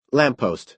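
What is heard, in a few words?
"lamp-post", American English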